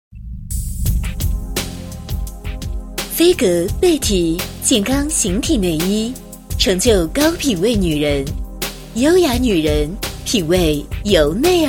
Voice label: 女 广告, 国语女声配音; 绯格·贝缇健康形体内衣

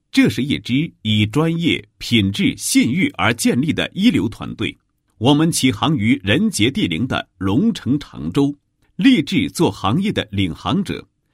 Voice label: 男 企业 公司宣传简介; 曲美公司宣传简介

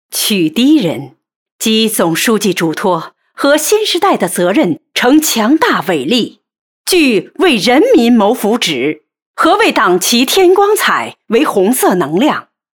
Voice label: 宣传片, 大气激情; 向党汇报

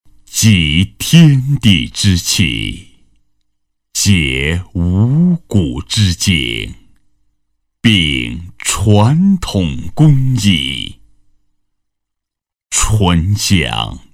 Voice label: 抒情大气,男

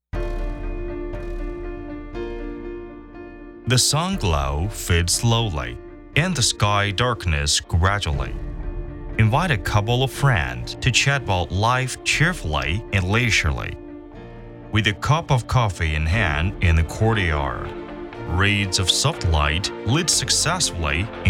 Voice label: 英文宣传片, 大气

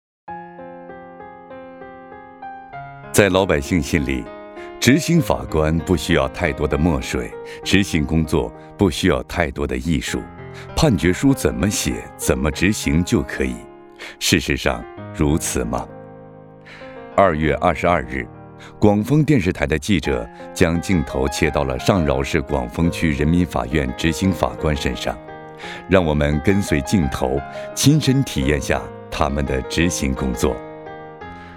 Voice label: 男 法官的一天

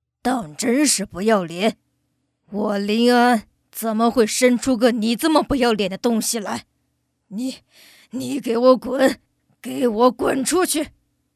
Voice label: 女, 老头子

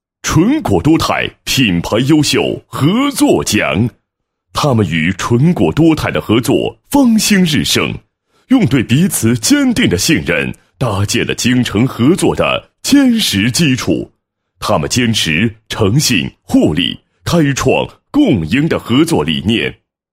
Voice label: 男 颁奖 颁奖视频解说词

